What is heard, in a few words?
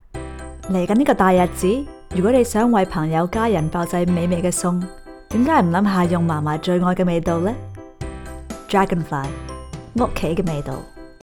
Cantonese commercial